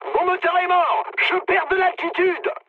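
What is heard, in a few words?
damaged engine, mood high, French; War Thunder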